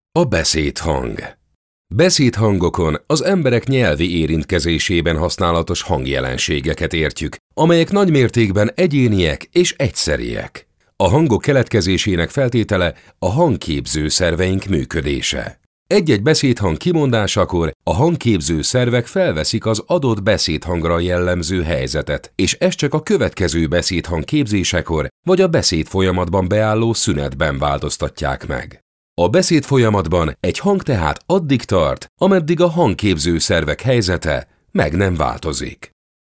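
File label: Hungarian corporate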